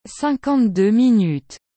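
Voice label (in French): "cinquante deux minutes", French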